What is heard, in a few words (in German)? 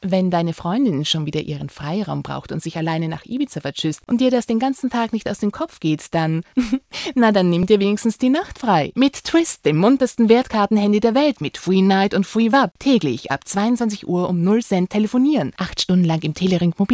Spot